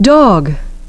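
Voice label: "dog", English pronunciation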